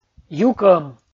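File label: "юкӧм", Komi, male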